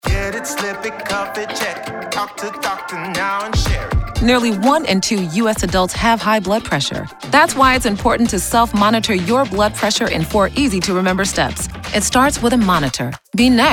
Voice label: English Radio